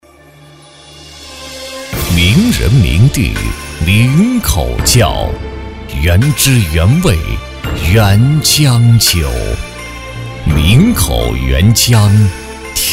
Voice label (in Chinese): 男国 酒广告 大气 深沉